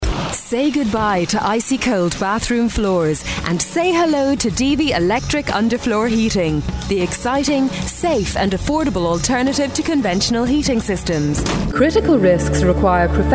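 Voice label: Irish, female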